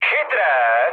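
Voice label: trick, Czech, mood med; War Thunder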